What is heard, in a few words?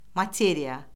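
"materiya", Azerbaijani; Orfoqrafiya Lüğəti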